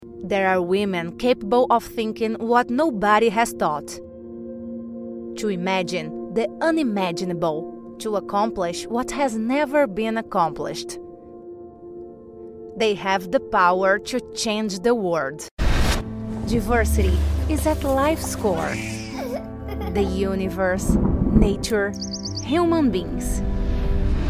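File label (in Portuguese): Inglês - América do Norte, Reel Locução Inglês, Voz Padrão - Grave, Feminino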